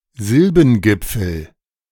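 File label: "Silbengipfel", German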